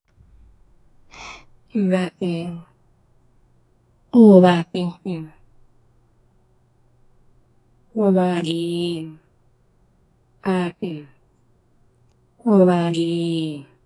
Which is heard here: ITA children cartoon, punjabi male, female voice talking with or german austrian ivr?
female voice talking with